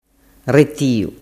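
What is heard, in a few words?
"retiu", Sicilian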